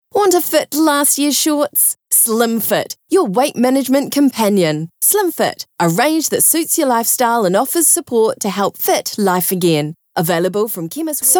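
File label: NZ, New Zealand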